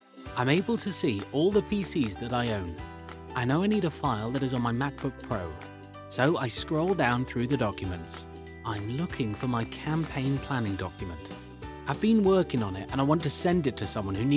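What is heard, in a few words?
UK English Corporate; GoLocalise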